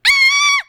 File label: High Pitched Ah